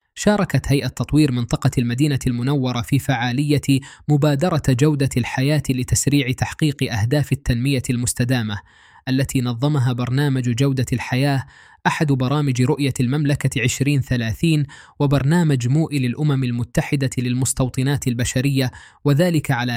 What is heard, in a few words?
arabic